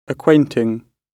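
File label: "acquainting", British English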